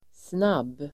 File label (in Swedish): "snabb", Swedish